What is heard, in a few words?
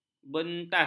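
"bentas", Malay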